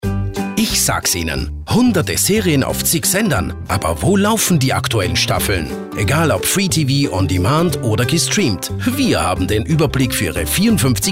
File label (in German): AT Werbung Freundlich